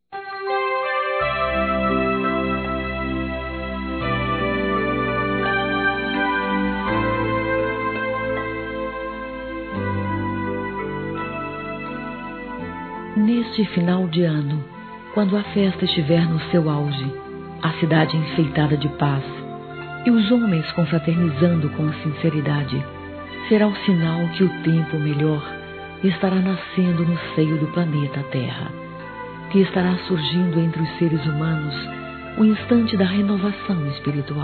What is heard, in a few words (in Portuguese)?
Ano Novo Feminino; Telemensagens; Natal e Ano novo